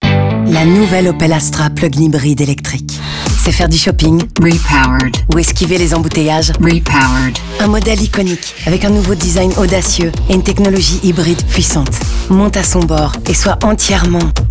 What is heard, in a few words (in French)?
FR Radio Voice; Opel Astra; 20s; Opel-Salon-Astra; Opel Repowered